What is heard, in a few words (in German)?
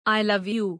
Hindi, "Ich liebe dich"